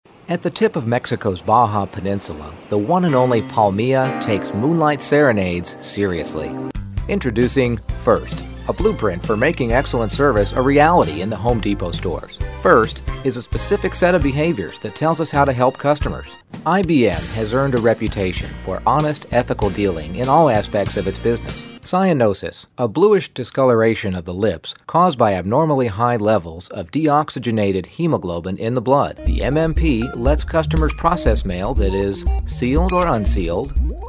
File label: Narration